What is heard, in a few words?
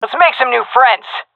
attacking, seal fem; vo; Counter Strike Model Pack; CS:GO